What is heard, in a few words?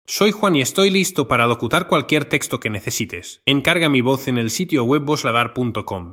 Spanish; spanish professional voiceover artist